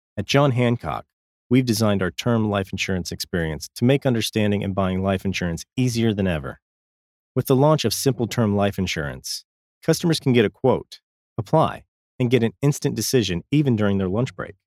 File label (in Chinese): 外籍英男,干音,磁性嗓音